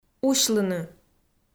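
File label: "усьлыны", Komi, female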